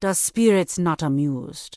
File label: Troll Female Laid Back Pissed; NPC